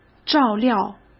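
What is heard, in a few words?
"照料", Mandarin Chinese; chinese flashcards; hsk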